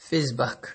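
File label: "faceback", Nigerian Pidgin, male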